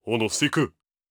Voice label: angry

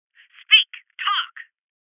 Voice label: Voices Interrogate Female

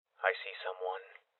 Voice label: Voices Enemy Spotted Male Young; rebel cops 2019

